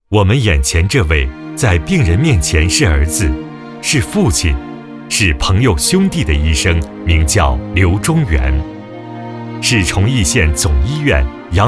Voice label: 男 人物专题 党员医生

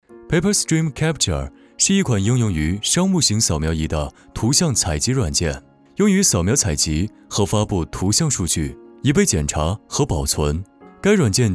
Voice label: 男 企业专题; 富士通; PaperStream

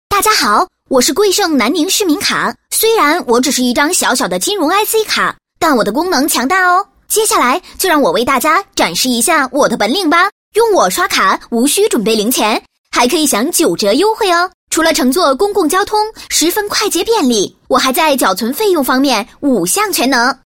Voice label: 女 童声自白; 南宁市民卡宣传介绍

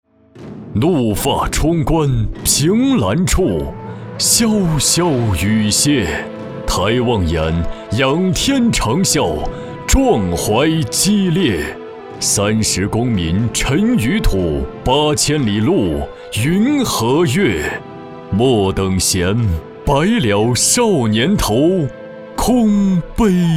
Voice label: 男 品牌宣传 大气激昂; 莫等闲酒业

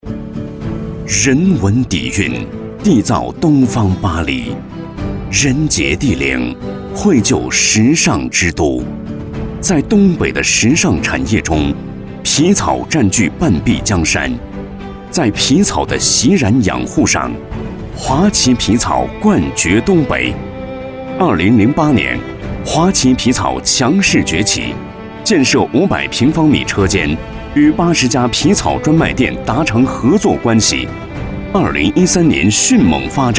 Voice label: 男-专题-华丽品质; 华其皮草

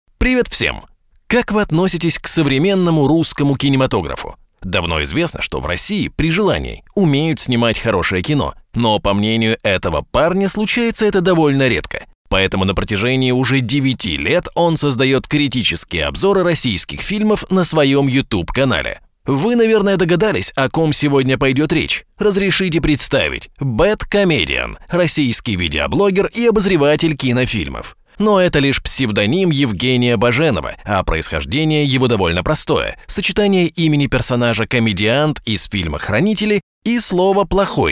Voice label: 俄语宣传片

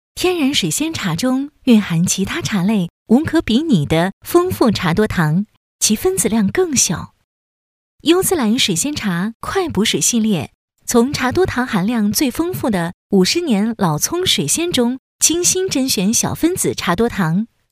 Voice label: 女 广告; 珀莱雅

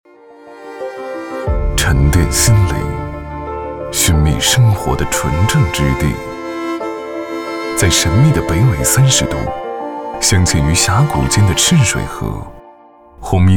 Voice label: 男 深沉高级

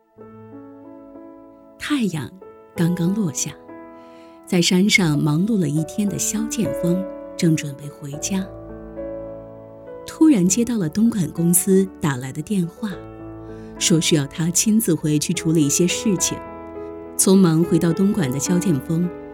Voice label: 女 专题 人物

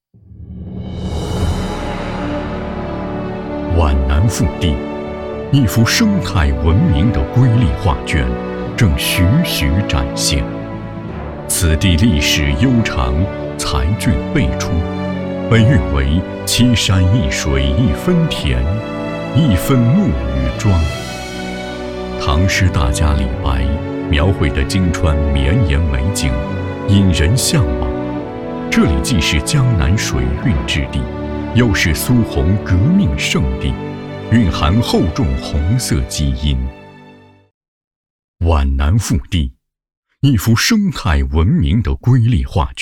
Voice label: 男, 文旅宣传; 皖南腹地

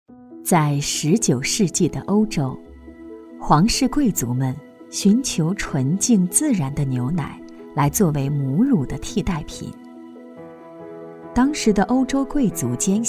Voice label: 女,广告,温和; 牧栏纯

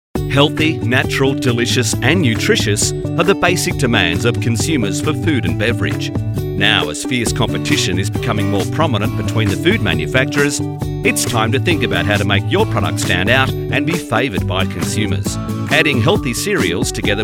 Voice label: 试音加音乐

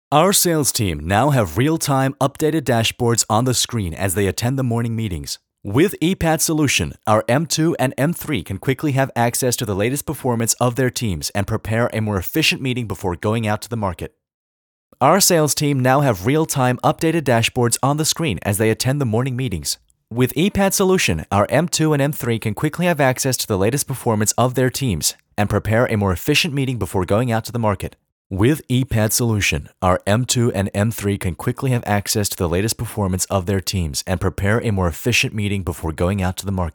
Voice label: 啤酒配音; 百威

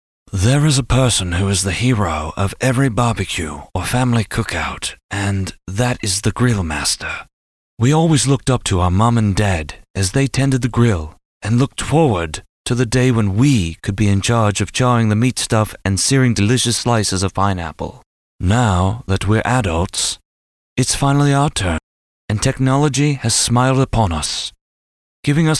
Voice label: 旁白 M

